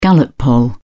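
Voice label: "gallup poll", British English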